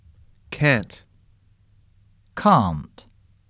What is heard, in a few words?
"CAN'T", USUK Pronunciation